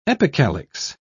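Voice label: "epicalyx", English, British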